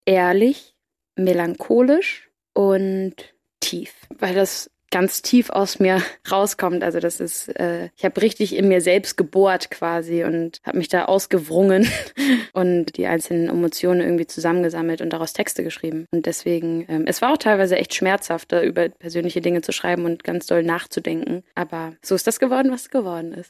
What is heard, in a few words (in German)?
interview; album beschreiben